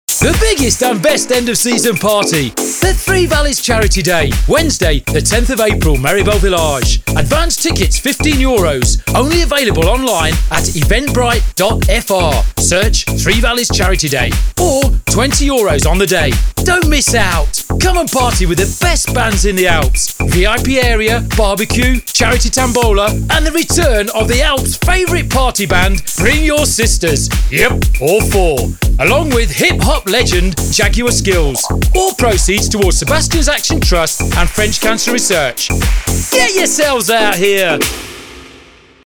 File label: advert